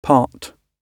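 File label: "part", British English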